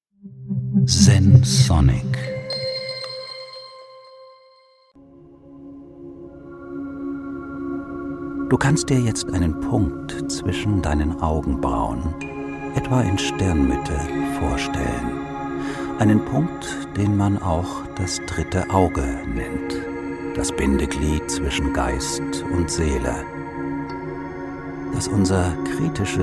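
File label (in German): Trancereise Körper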